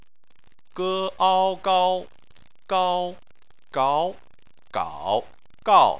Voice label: "gao", chinese

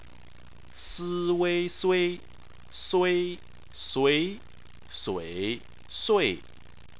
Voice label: "sui", chinese

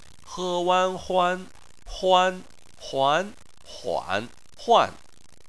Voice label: "huan", chinese

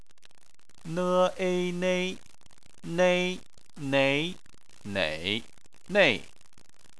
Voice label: "nei", chinese

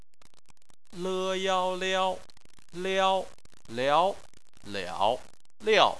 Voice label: "liao", chinese

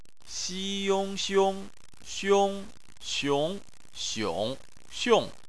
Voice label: "xiong", chinese

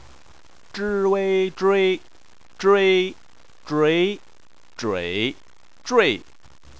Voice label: "zhui", Chinese

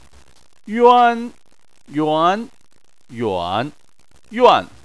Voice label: "yuan", chinese

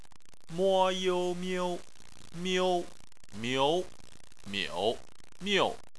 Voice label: "miu", chinese

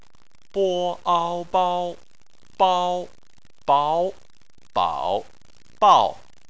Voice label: "bao", Chinese

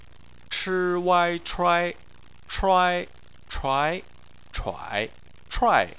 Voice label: "chuai", Chinese

